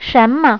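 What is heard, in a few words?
"shen2me", Chinese; HyperGlot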